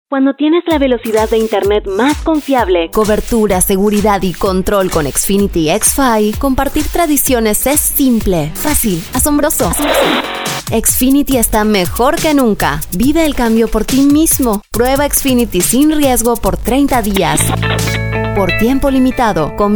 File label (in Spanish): español neutro